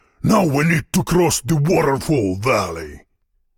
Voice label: Voice Monolog; We Are The Dwarves

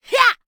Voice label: Voices Vo Female Fight Attack

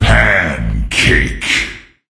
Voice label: Voices Announcer Reward

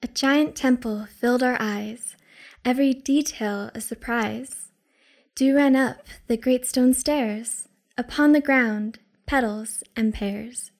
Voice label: Poetry; Giant Temple

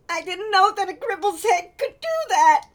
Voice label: Male Reactions

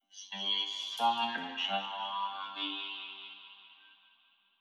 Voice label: Vox Single; Space Otter Charlie

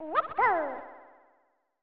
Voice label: "Let's Go!" (Echo); Lemmings 2 The Tribes

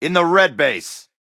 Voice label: Voices Character Male; unreal development kit

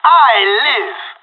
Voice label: Pirate Last man standing; Counter-Strike Global Offensive; PS3 Windows Xbox 360

